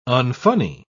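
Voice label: "unfunny", English, uk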